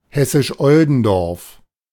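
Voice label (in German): "Hessisch Oldendorf", German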